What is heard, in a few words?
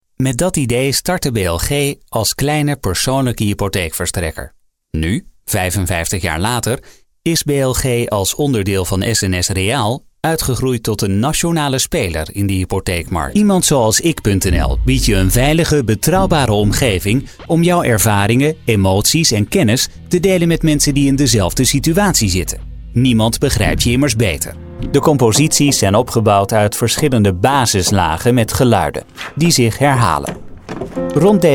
dutch male